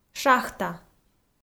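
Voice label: "шахта", Komi, female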